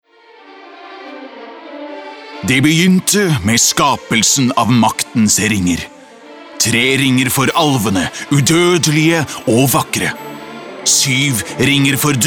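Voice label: no commercial movie trailer, mann; norsk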